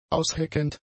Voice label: "ausheckend", German; adjectives